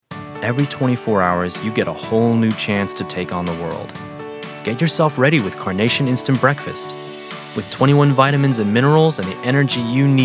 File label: Standard Commercial VO